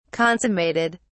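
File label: "consummated", British English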